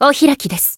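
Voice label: finish, Japanese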